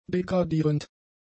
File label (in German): "degradierend", german; adjectives